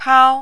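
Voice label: "pao", Mandarin, first tone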